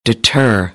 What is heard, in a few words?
"deter", American English; ɝː - ɜː